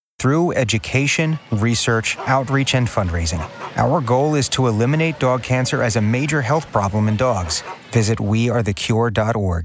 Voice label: Commercial PSA